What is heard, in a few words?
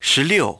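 "ten six", English; iOS 4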